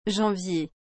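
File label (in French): "janvier", French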